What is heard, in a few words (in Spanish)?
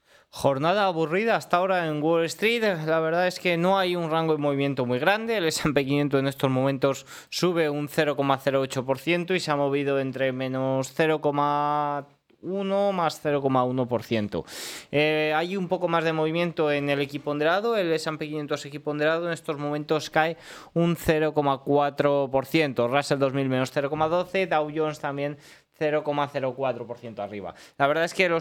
radio; noticias bolsa